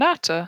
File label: "nata" longer